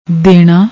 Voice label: "dena", Punjabi; ਦੇਣਾ

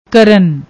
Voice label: "krn", Punjabi; ਕਰਨ

